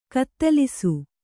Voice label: "ಕತ್ತಲಿಸು", Kannada